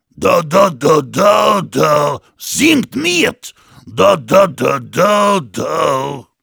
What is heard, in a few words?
Heavy cheers, de; "Da da da da. Da da da da. Singt mit! Da da da da da."; Volga Boatmen